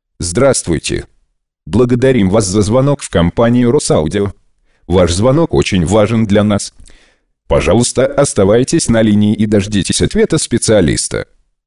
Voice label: robot